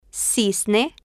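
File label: "cisne" Spanish, female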